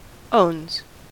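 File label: "owns", American English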